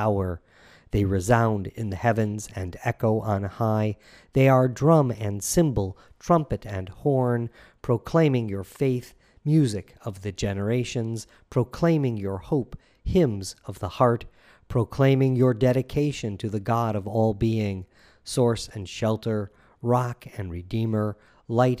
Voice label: whispered prayer